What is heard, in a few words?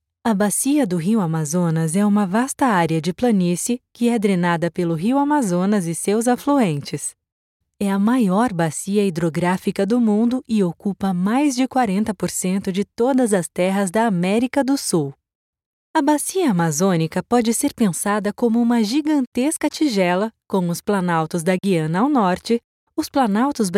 Brazilian Portuguese voiceover, e-learning